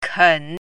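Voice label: "ken3", Chinese pinyin, third tone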